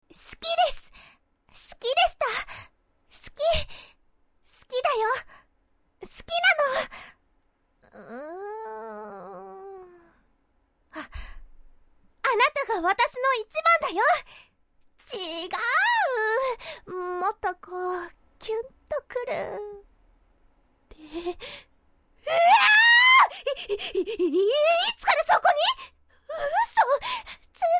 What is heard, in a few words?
girl; 少女; 勢いよく告白